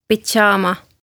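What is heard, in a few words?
"Pidzaama", Estonian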